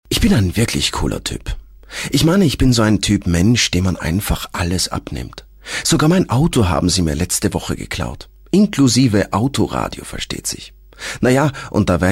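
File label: german austrian male narration; voice demos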